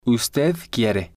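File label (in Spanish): "ud quiere", Spanish, male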